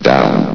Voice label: "down", vox; Source Engine; bshift